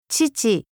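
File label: "chichi", Japanese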